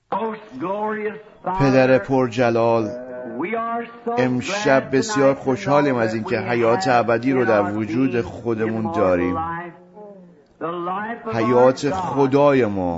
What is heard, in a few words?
Farsi Voice Over; Smyrnaean Church Age